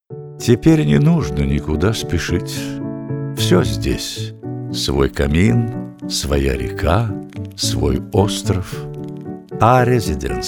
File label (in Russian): радио РЕКЛАМА